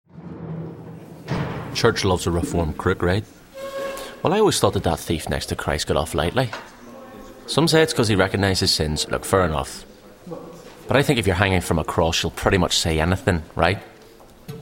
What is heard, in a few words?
character